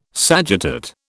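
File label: "sagittate", British English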